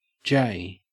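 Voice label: "jay", Australian English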